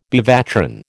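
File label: "bevatron", American English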